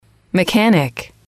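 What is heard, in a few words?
"mechanic", English, female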